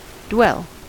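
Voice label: "dwell", US English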